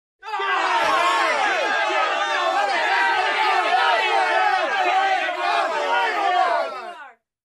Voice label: People shouting and asserting